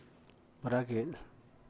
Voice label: "brageel", San Mateo Macuilxochitl Zapotec; dawn; amanecer